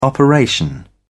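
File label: "operation", British English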